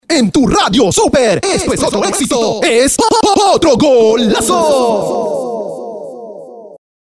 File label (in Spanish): cuña golazo